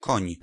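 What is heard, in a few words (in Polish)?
"koń", Polish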